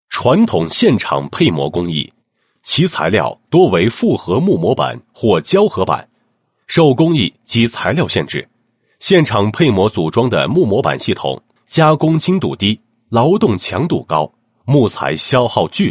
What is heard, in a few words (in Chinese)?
男 项目说明 基于BIM技术应用的高层建筑铝合金模板施工工法